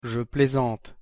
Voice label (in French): je plaisante, pronunciation fr